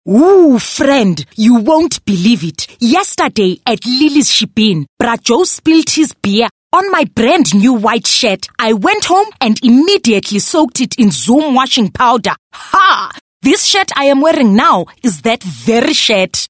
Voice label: English Character African